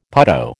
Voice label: "putto", American